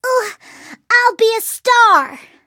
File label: hit, en; cookierunwiki